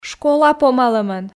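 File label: школа помалӧмӧн, Komi, female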